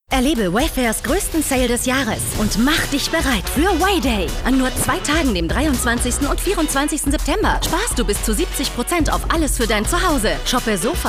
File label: Commercial excited; Wayfair